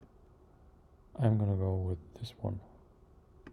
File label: neutral